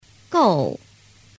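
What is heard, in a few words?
"gou", Mandarin Chinese; phan biet am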